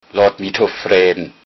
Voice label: leave me alone, low saxon